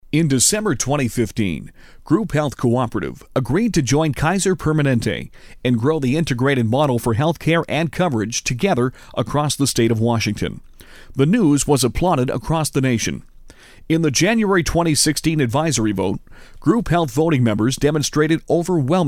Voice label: Narration Documentary

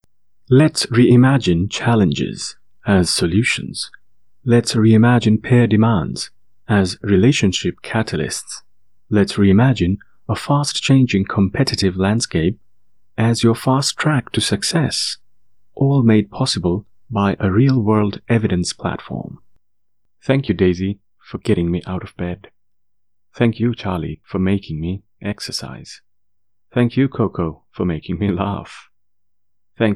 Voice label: English eLearning

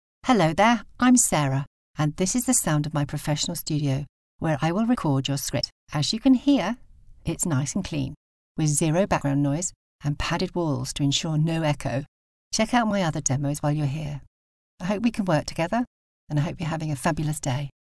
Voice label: HOME STUDIO

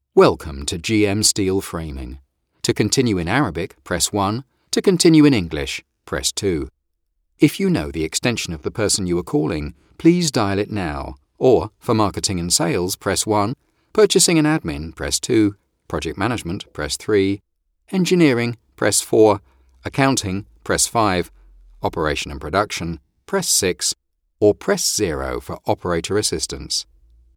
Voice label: Phone Options

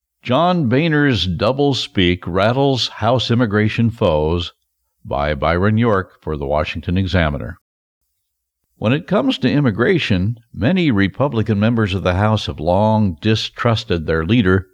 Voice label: Political Podcast

